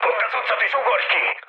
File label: bailout, speech hun; War Thunder